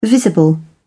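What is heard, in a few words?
"visible", English, British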